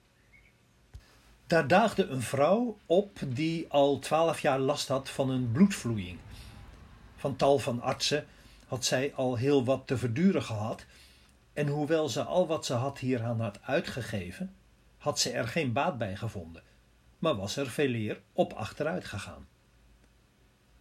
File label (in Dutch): Bijbellezing